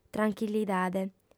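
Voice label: "tranchillidade", lingua sarda, female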